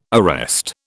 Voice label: "arrest", British

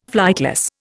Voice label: "flightless", Indian

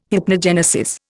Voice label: "hypnogenesis", Indian

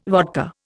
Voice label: "vodka", Indian

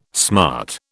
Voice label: "smart", British

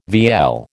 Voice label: "vielle", American

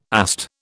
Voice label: "AST", British

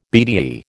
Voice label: "Bde", American

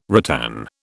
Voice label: "ratan", British

